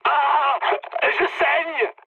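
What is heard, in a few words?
French damaged pilot mood med; War Thunder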